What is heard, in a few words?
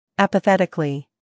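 "humor", British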